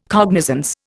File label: "cognizance", Indian